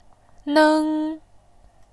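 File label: "neng", Mandarin, tone 1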